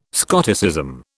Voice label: "Scotticism", British

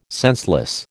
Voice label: "senseless", American

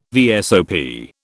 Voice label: "VSOP", British

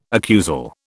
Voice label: "accusal", British

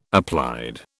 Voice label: "applied", British

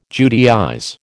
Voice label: "Judaize", American

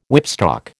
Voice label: "whipstock", American

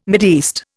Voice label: "Mideast", Indian

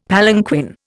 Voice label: "palanquin", Indian; Dictionary

